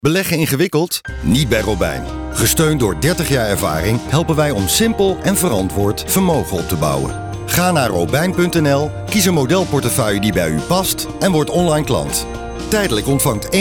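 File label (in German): Dutch Dynamisch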